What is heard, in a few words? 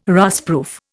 "rustproof", Indian